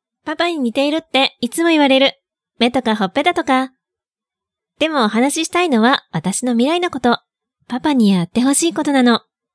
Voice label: Girl